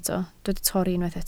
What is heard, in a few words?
"torri", Welsh